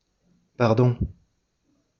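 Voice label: "pardon", French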